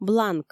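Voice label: "бланк" Komi, female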